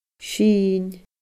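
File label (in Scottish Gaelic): "sin fada", Scottish Gaelic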